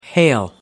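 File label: "hail", English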